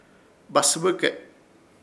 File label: "Basɨbɨke", Ishir Chamacoco; nombre de casique